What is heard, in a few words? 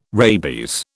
"rabies", British